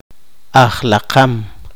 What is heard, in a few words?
poqomchi; alf rez